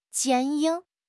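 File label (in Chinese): "坚硬", Mandarin Chinese; HSK6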